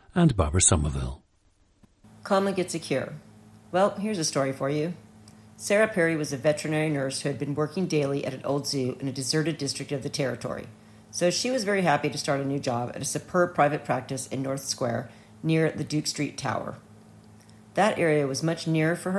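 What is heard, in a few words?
california, International Dialects of English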